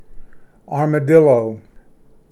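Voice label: "armadillo", English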